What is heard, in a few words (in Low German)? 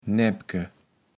"Näpke", Platt